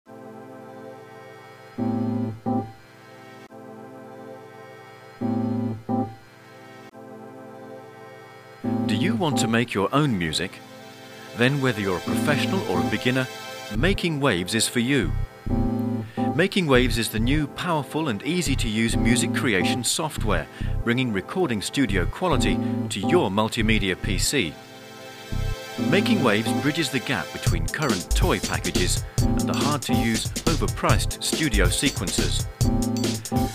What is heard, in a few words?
voiceover